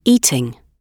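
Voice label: "eating", British English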